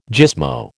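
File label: "gismo", American English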